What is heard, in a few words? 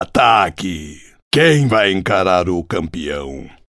voice portugues brasileiro brazilian portuguese; jax; league of legends